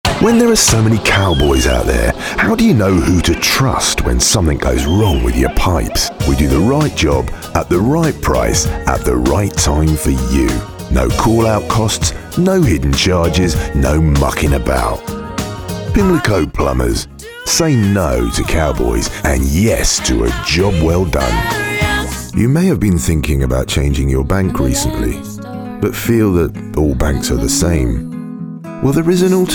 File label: Commercials Voice